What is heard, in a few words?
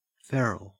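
"feral" English, Australian; pronunciations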